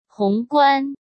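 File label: "宏观", Mandarin Chinese; chinese-flashcards; hsk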